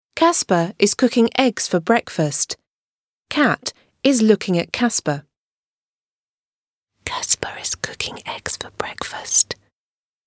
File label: murmur